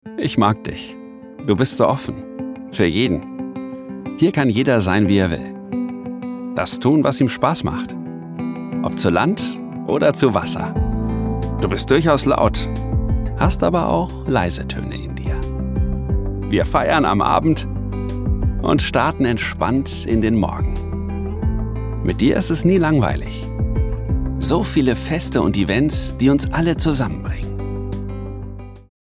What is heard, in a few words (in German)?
imagefilm natuerlich locker musik